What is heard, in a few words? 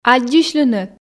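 "аддзысьлыны", Komi, female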